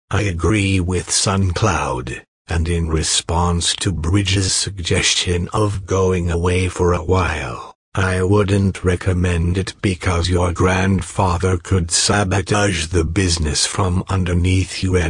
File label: Recorded Eaves dropping